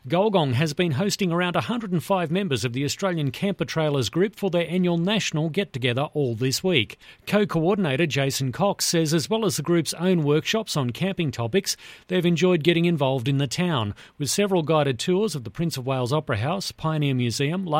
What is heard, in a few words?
radio news story; gulgong; realfm